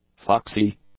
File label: "foxy", English; adjectives